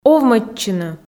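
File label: "овмӧдчыны", Komi, female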